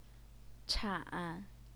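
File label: "chan", Mandarin Chinese, third tone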